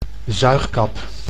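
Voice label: "zuigkap", Dutch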